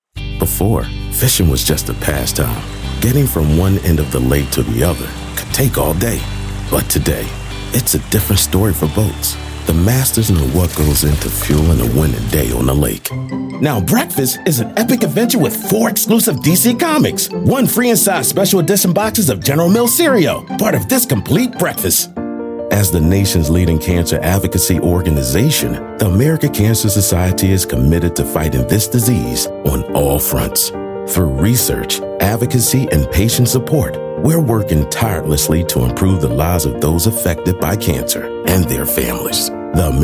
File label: male commercial